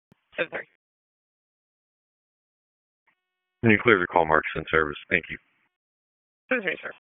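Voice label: EMS Dispatch; wake; p25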